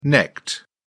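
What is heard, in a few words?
"necked", British English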